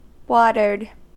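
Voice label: "watered", American English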